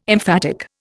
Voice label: "emphatic", Indian

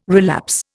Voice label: "relapse", Indian

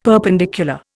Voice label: "perpendicular", Indian; Dictionary

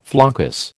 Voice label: "floccus", American

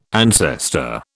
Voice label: "ancestor", British

